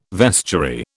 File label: "vestiary", British